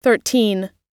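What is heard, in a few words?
"thirteen", American English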